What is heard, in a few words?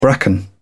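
"bracken", English, British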